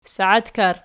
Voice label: "saiatkar", Archi